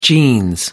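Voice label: "jeans", British English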